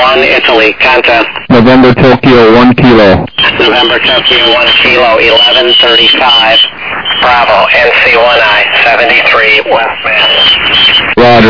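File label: lower sideband 7MHz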